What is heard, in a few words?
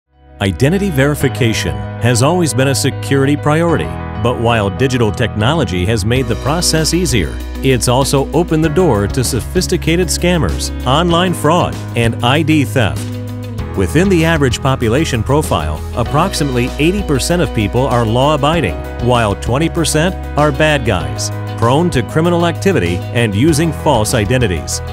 US PHONE